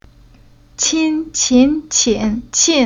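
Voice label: "Qin", Chinese syllable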